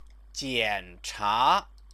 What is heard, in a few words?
"jiancha", Chinese; Chinese lessons